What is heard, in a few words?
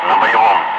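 Russian speech, Ka-50 Wingman; DCS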